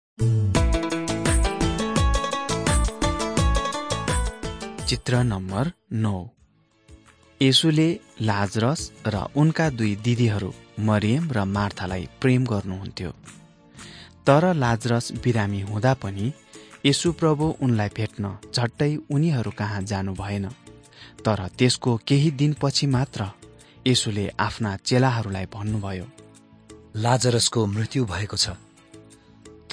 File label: jesus lord and saviour nepali